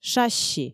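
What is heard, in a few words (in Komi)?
"шасси", Komi, female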